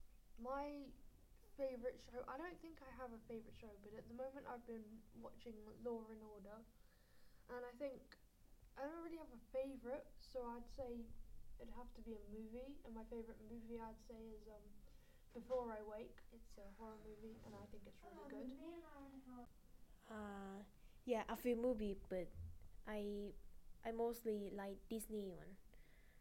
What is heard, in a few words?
Vox pop